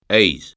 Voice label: "eizh", Breton

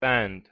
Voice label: "band", Persian

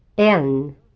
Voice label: "en" Swedish

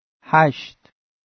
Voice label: "hasht", Farsi

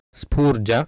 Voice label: "sphuurja", Sanskrit

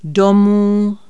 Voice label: Czech home

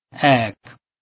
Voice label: Bengali

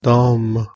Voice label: "dam" tame, Persian

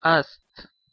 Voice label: "ast", Persian

